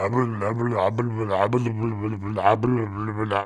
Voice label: Cartoon Mumble Speak